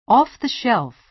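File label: "off-the-shelf", American English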